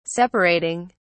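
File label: "separating", British English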